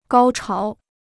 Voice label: "高潮", Chinese

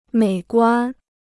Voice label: "美观", Chinese

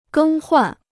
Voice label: "更换", Chinese

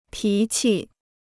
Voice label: "脾气", Chinese; 脾气 (pí qi): character; temperament

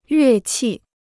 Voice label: "乐器", Chinese

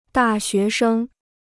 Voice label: "大学生", Chinese

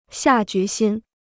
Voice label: "下决心", Chinese

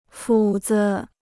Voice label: "斧子", Chinese

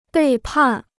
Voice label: "背叛", Chinese

